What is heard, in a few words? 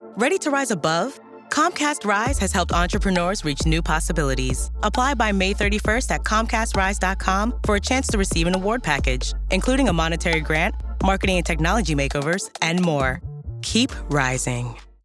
english; Comcast Rise 2024